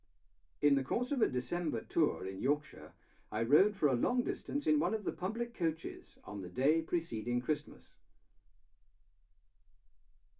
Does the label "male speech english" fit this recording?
Yes